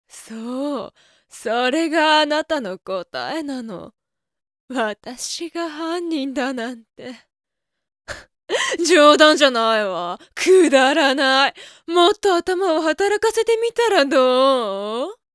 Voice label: lady